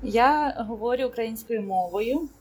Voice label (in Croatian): ukrajinski; POP UP Zagreb